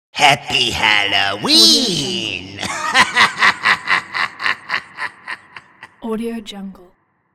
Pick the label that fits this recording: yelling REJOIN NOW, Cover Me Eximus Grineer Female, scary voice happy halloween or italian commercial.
scary voice happy halloween